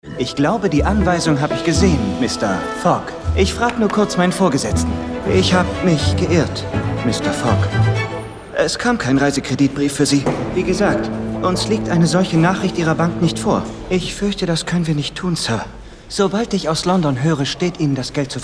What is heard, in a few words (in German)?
Hoefliche Loyalitaet; In 80 Tagen um die Welt; Banker; Höflich / Loyal